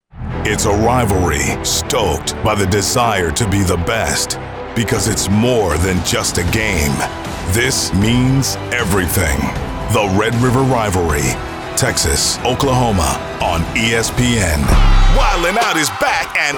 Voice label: promo; hollywood